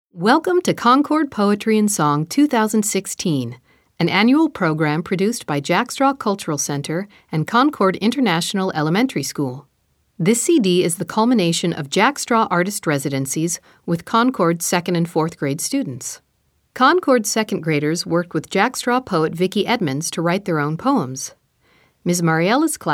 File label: Opening Narration